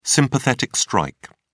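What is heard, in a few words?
"sympathetic strike", English, British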